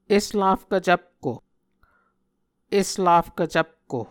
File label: "eslafkv cvpko", Muscogee